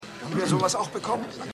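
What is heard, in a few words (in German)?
Älterer Restaurantgast; Valentinstag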